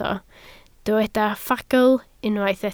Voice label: "ffagl", Welsh

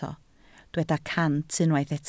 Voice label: "cant", Welsh

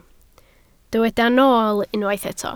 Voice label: "nool" Welsh

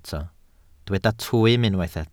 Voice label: "twym", Welsh

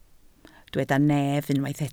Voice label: "nef", Welsh

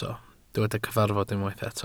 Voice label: "cyfarfod" Welsh

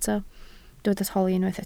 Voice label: "holi", Welsh

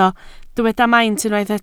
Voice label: "maint", Welsh

